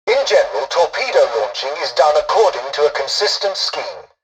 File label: tutorial dialogue, english; War Thunder